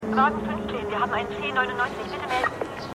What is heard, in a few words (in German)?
Funkstimme; Fargo